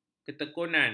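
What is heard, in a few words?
"ketekunan", Malay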